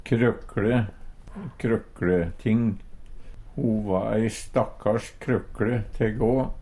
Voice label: krokkle, Numedalsmål; Substantiv hokjønn

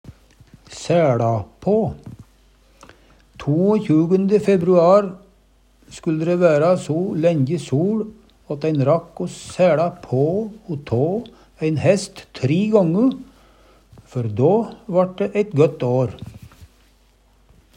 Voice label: saeLa pa, Numedalsmål

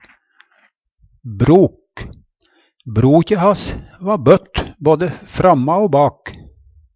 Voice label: "brok", Numedalsmål

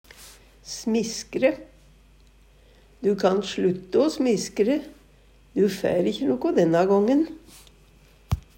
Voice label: smiskre, Numedalsmål

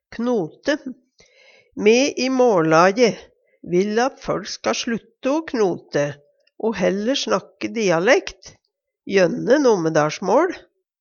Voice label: knote, Numedalsmål

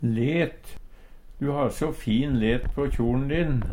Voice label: let, Numedalsmål; Substantiv hankjønn

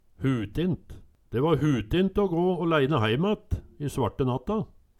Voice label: hutint, Numedalsmål

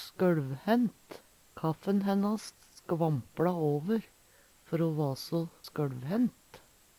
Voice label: skolvhent, Numedalsmål; skøLvhent; Adjektiv; Veggli

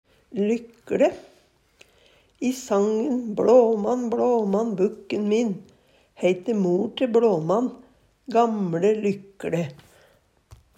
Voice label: lykLe, Numedalsmål

